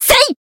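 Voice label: Voice attack, Japanese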